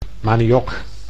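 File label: "maniok", Dutch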